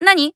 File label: Emo Reply